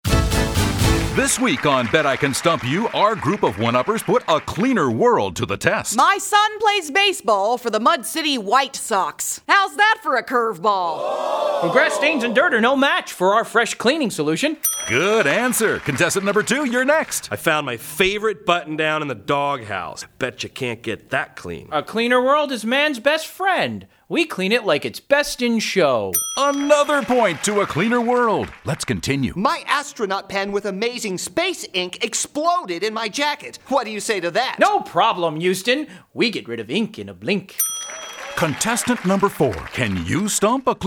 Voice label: radio